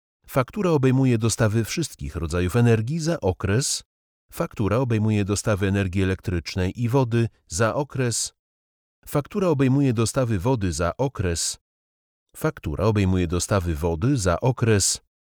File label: male polish